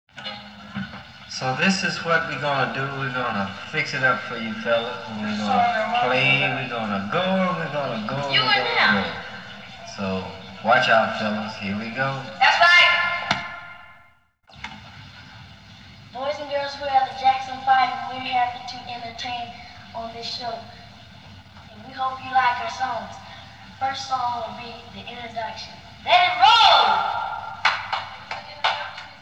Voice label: Monologue